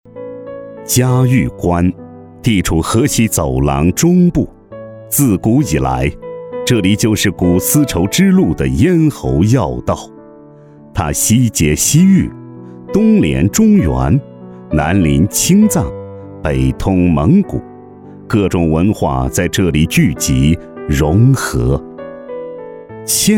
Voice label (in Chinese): 男 纪录片; 全羊宴